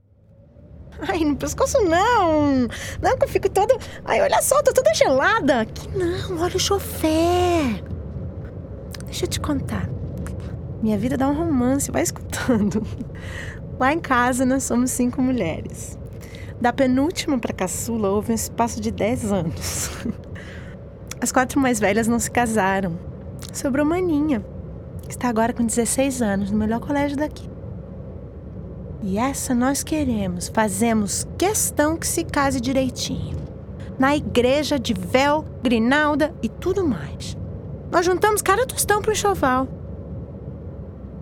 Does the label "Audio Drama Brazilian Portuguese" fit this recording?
Yes